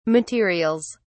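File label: "materials", British English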